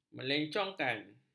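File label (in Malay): "melencongkan", Malay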